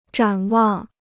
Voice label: "展望", Chinese

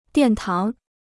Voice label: "殿堂", Chinese

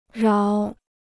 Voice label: "饶", Chinese

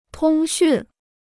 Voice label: "通讯", Chinese

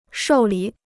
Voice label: "受理", Chinese

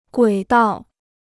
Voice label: "轨道", Chinese

